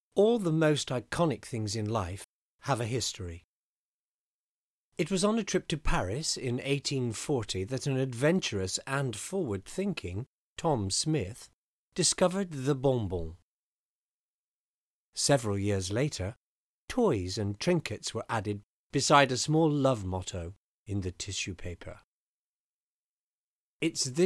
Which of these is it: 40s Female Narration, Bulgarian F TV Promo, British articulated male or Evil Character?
British articulated male